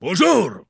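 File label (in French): "bonjour", French, dwarf, female; PhoenixCraft-1.16.5; PhoenixNPCPack; minecraft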